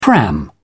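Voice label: "pram", English, British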